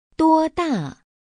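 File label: "duoda", Chinese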